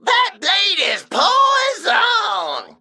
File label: Male Mech Emote Trigger Deadly Poison